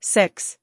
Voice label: "six", voice